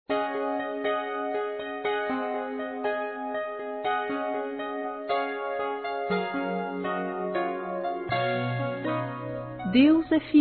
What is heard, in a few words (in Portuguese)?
OTIMISMO, Gospel, fem; Telemensagens; Telemensagens 2017